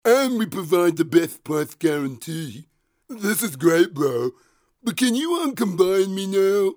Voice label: Moose character voice for TVC; Cartoon moose character voice for TVC